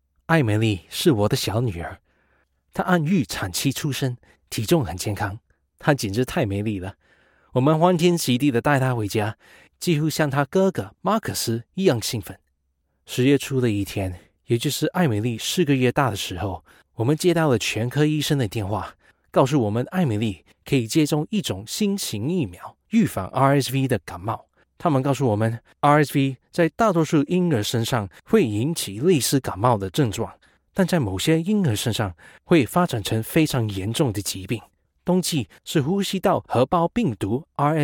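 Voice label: Narration, Chinese; GoLocalise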